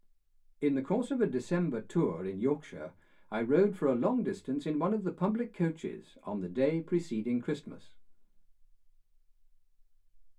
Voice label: male speech english